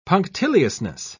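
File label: "punctiliousness", British English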